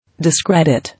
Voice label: "Discredit", English